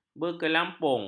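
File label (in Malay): "berkelampung", Malay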